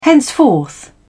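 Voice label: "henceforth", British English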